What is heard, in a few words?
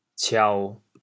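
"ciao1", Teochew, chaoyang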